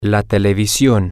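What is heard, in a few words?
the television, spanish, male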